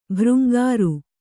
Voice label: "ಭೃಂಗಾರಿ", Kannada